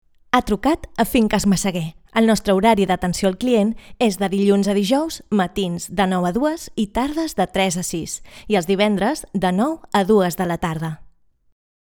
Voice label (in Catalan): IVR Catala; Finques Massaguer